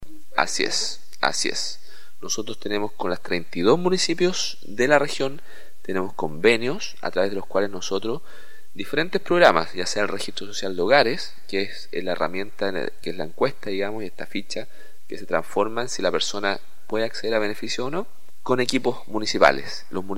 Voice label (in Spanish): Cuña